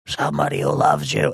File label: saying somebody who loves you gravely voice